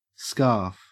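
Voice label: "scarf", Australian English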